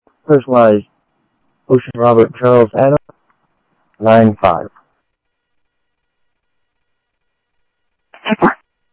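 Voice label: Sheriff East County, scanner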